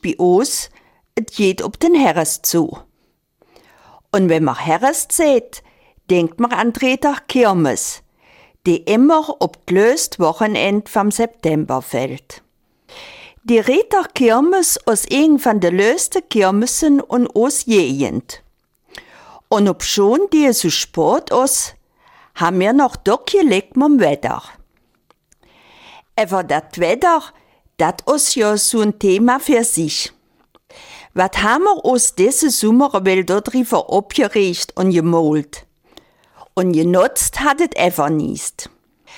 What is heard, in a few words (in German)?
Eifeler Mundart; Kirmes in Recht